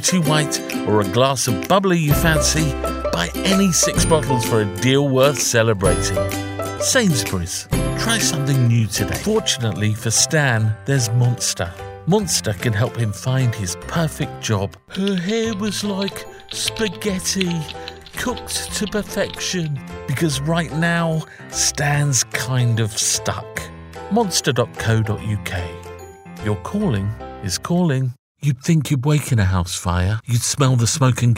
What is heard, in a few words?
Commercial Reel